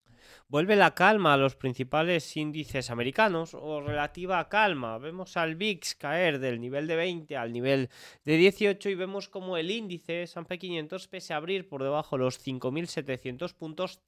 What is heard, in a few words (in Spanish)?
radio